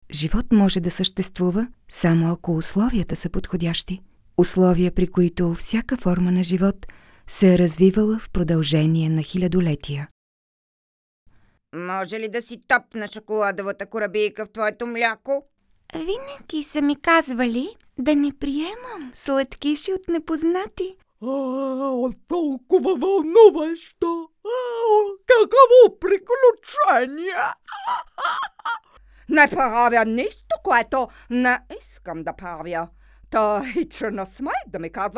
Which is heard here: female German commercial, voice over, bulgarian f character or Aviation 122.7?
bulgarian f character